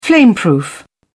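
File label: "flameproof", British English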